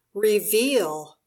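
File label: "reveal", English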